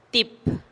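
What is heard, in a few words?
"tip", Teotitlán del Valle Zapotec; fuerte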